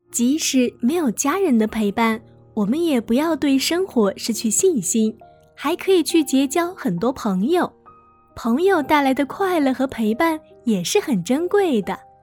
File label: female chinese voice over narrative, mandarin